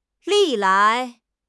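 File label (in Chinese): "历来", Chinese; HSK6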